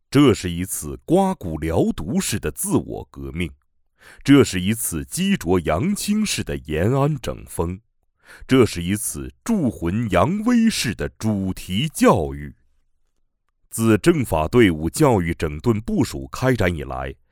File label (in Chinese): 男 专题 派出所教育整顿; 腰站派出所教育整顿